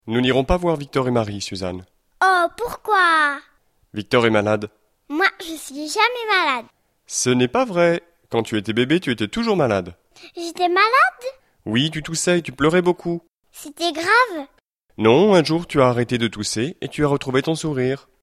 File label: dialogue, French